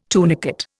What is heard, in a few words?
"tunicate", Indian